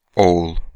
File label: Son in Turkish